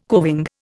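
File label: "coving", Indian English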